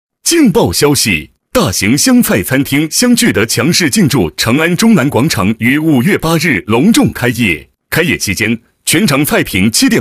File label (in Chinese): 激情促销男 餐厅新店开业; 湘菜